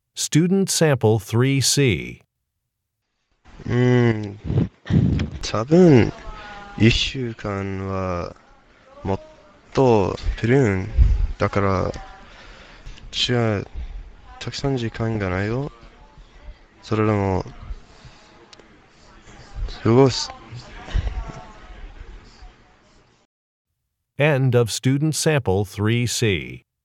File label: japanese conversation